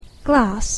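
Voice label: "glass", English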